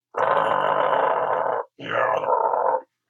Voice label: Angry